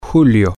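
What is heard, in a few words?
july, Spanish, male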